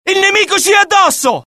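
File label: alert, Italian; mohaa; dialogue; sighted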